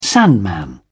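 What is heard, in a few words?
"sandman", British English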